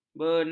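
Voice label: "benak", Malay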